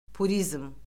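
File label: "purizm", Azerbaijani; Orfoqrafiya Lüğəti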